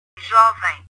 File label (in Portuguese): "jovem", portugues